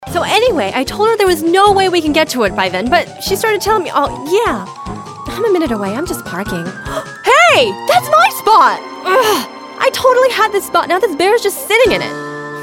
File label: Commercial